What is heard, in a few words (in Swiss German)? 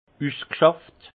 "usgschaft", alsace; vin; évolué